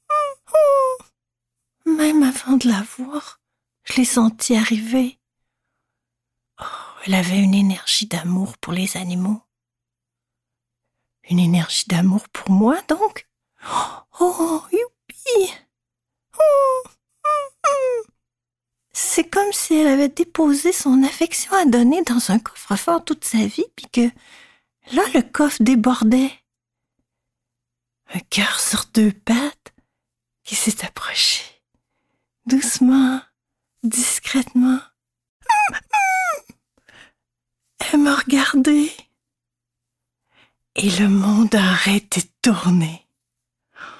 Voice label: Narration; L'AMOUR IGNORANT